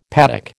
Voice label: "paddock", American